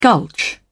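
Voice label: "gulch", British English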